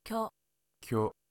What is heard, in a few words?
"kyo", Japanese